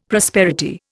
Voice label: "prosperity", Indian; Dictionary

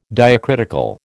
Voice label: "diacritical", American

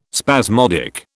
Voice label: "spasmodic", British

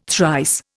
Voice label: "thrice", Indian

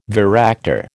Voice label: "varactor", American

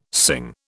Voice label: "Singh", British

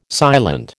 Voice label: "silent", American

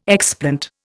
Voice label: "explant", Indian

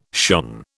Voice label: "shone", British

